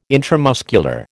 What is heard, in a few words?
"intramuscular", American